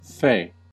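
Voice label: "fey", US English; pronunciations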